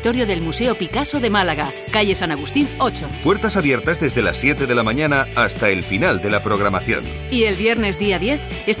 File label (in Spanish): Punto radio promo